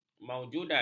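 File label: "maujudat", Malay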